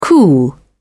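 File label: "cool", British English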